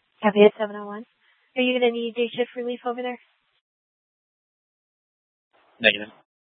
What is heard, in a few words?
Mesa PD Dptmt Red Mnt Dispatch, scanner; 3 Red Mnt Dispatch